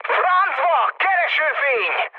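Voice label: Hungarian searchlite mood high, speech; War Thunder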